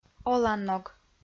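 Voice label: "оланног" Komi-Zyrian, female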